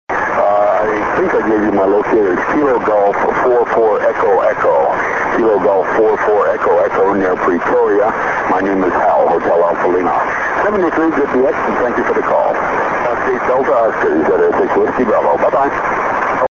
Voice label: 6m ssb

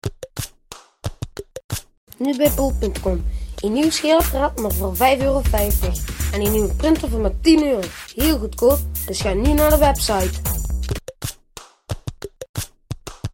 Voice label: radio commercial

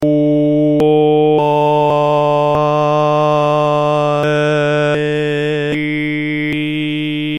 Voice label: nine vowels and their harmonics in eflat

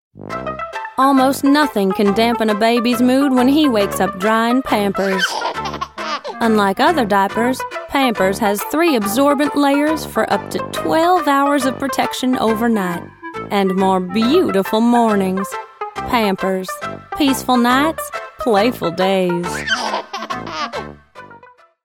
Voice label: advert; pampers

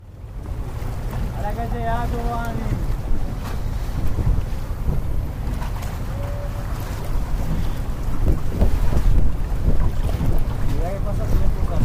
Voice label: dialogue on a traghetto, Venice

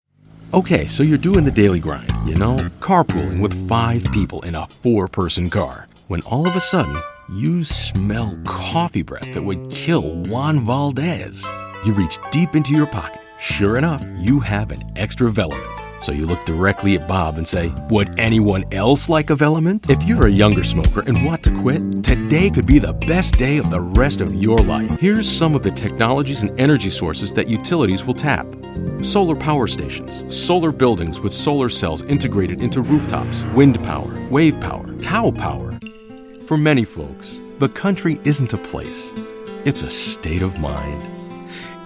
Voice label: commercial

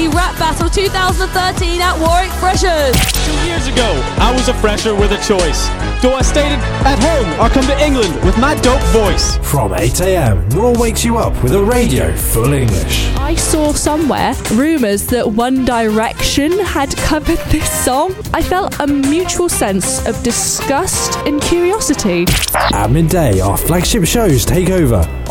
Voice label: raw station advert

